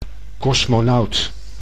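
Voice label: "kosmonaut", Dutch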